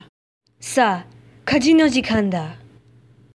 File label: Greed, Japanese